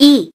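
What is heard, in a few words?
voice Korean, keytone; SKY IM-A800S-VEGA-LTE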